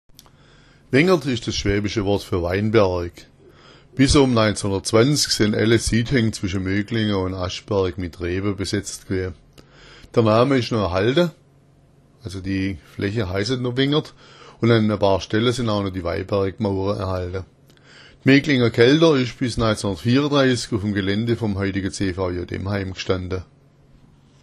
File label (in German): "wengert", schwaebisch